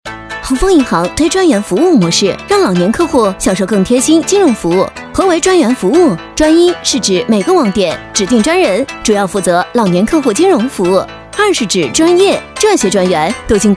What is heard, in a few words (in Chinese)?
女 抖音 解说